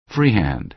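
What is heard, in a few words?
"freehand", American English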